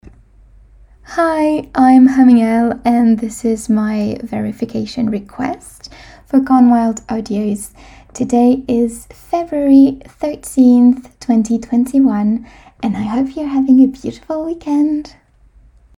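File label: verification, female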